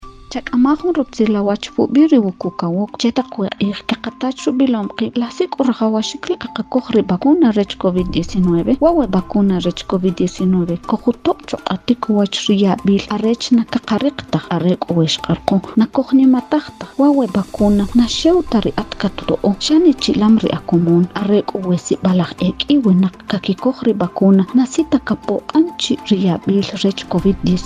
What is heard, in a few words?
SPOT KICHE